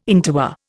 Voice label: "interwar", Indian